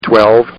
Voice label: Man Says, Talking; Partners In Rhyme